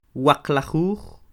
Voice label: "Waqlajuj", Quiché; dieciseis; sixteen